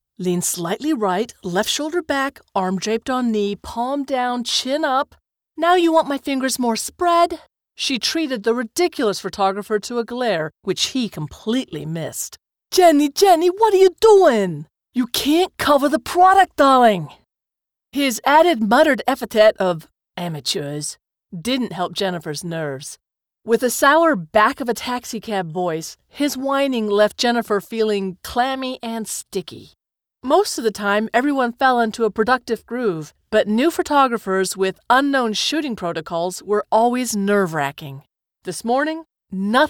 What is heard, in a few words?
Audio books American; Voice-over demos; USA